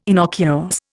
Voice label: "innocuous", Indian

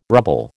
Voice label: "rubble", American

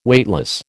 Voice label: "Weightless", American

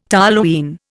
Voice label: "toluene", Indian

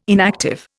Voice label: "inactive", Indian

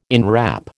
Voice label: "inwrap", American

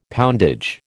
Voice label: "poundage", American

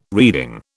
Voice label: "reeding", British

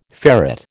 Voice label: "ferret", American

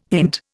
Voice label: "hint", Indian

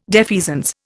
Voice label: "defeasance", Indian

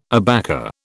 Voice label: "abaca", British

